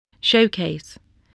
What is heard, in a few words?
"showcase", spelling